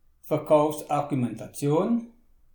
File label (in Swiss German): "verkaufsargumentation", alsace